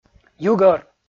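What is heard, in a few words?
"югӧр", Komi, male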